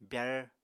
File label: "byar", Apatani; [bjar] v. flip; flick